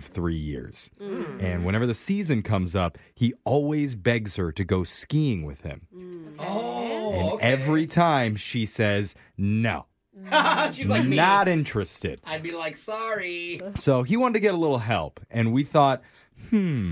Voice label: phone tap; medical rewards; movin925